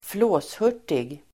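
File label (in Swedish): "flåshurtig", Swedish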